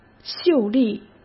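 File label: "秀丽", Mandarin Chinese; hsk; chinese-flashcards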